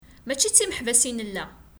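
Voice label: "Mačči d timeḥbas i nella.", Kabyle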